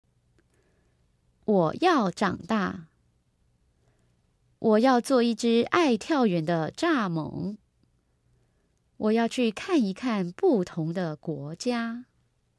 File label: dictation